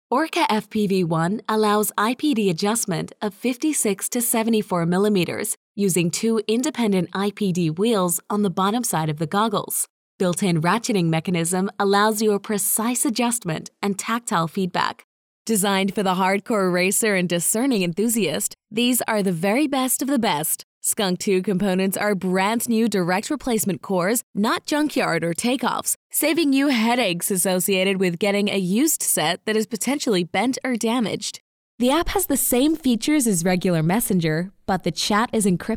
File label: American Explainer